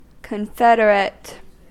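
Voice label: "confederate", American English; pronunciations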